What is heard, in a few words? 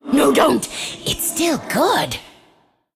Female Forsaken Play